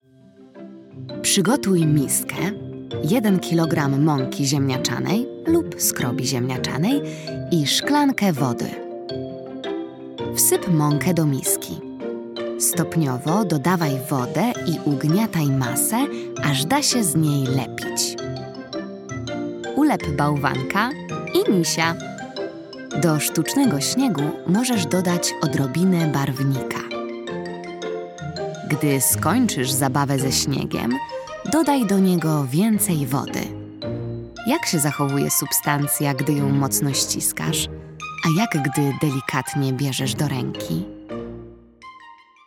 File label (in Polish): narracja; czasopismo mis; zima; eksperyment